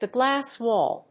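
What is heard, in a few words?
"The Glass Wall", English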